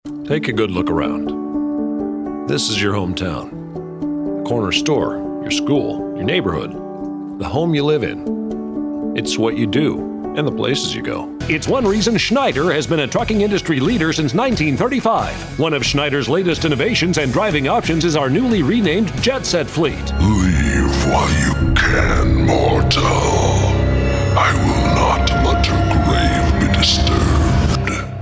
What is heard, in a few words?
comm VO